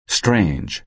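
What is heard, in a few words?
"strange", American English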